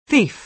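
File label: "thief", American English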